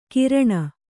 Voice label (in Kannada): "ಕೀರ್ಣ", Kannada